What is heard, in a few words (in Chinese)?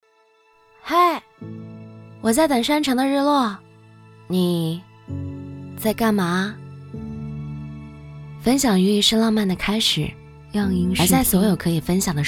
女 素人; 山城日落; 模仿房琪